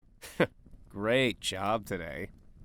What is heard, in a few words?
Sarcastic audio man